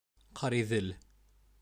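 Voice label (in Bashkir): "Ҡариҙел", Bashkir; Уфа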